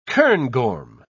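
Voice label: "cairngorm", English, British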